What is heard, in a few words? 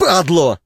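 damage, Ukrainian; combat ukr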